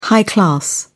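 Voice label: "high-class", English, British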